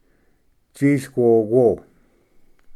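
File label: "tsisquoquo", Cherokee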